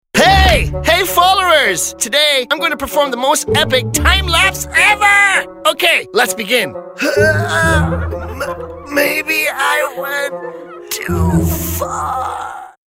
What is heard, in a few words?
english international animation